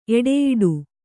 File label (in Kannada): "ಎಡೆಯಾಡು", Kannada